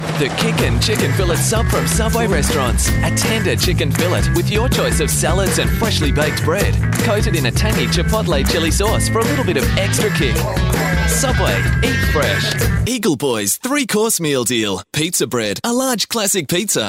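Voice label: English Australian, commercial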